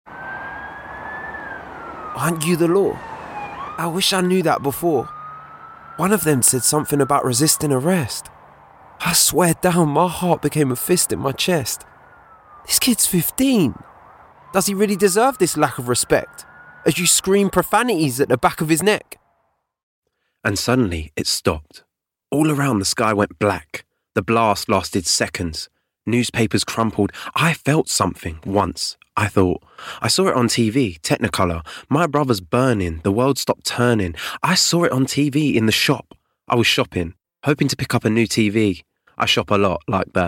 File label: estuary voice audiobook, Estuary English Male